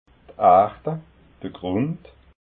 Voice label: "arda", alsace

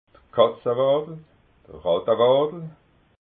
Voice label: "katzawadel", alsace

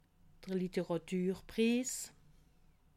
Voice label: "litteraturpriss", alsace

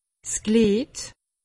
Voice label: "glied", alsace; medecine

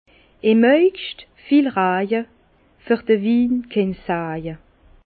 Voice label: "augscht", Bas Rhin; vin; beaucoup de pluie en août peu de chance pour le vin

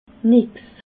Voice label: "nix", alsace

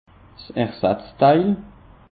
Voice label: "ersatzteil", alsace; peche

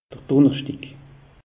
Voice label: "dunnerschdig", alsace; meteo

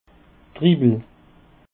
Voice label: "dribbel", alsace

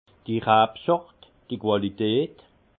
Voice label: "rabsort", alsace; vin

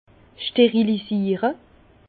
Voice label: "sterilisiere", Bas Rhin; vin; stérilisation

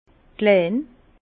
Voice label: "klein", Bas Rhin; petit

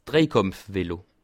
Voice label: "drejkampf", alsace

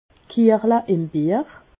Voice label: "kiechle", Alsace

